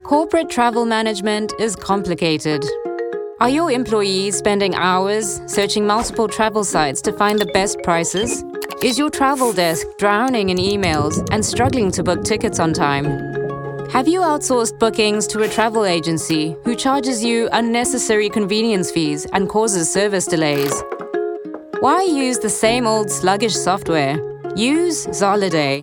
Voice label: Corporate